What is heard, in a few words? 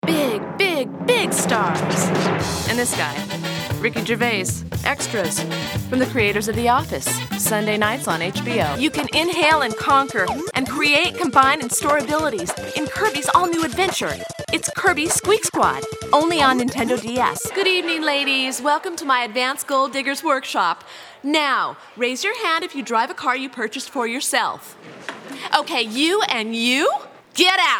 Commercial